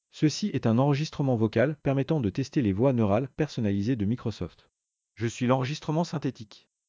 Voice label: synth, Microsoft Custom NeuroVoice en Français; Le faux Jean-Philippe; L'intelligence artificielle permet de générer des voix de synthèse de plus en plus réaliste